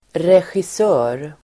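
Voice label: "regissör", Swedish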